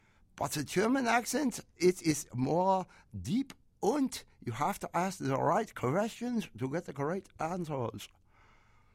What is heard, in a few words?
German